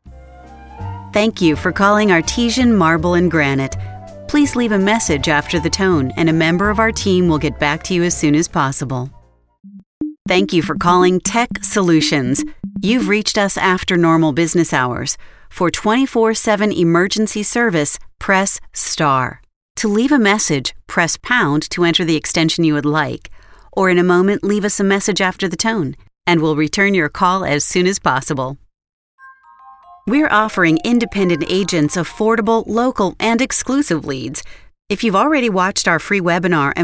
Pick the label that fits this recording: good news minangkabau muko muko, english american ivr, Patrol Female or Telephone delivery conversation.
english american ivr